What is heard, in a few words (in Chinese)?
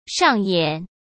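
"上演", Mandarin Chinese; hsk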